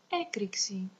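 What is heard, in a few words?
explosion description, Greek; naturalforces; stamps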